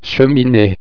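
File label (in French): "cheminee", French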